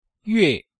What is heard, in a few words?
"yuè", Chinese